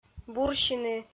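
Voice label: "бурсины", Komi, female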